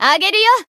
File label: heal, jp